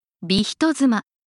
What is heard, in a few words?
beautiful wife of another hitotsuma Japanese pronunciation audio